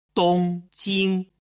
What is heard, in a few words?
"dongjing", Chinese